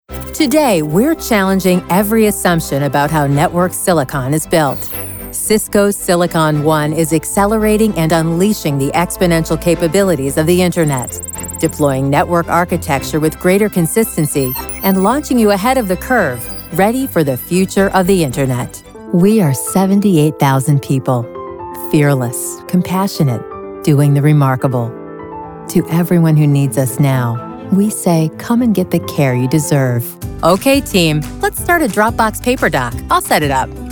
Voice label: Corporate Narration